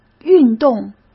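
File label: "运动", Mandarin Chinese; chinese-flashcards; hsk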